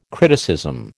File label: "criticism", American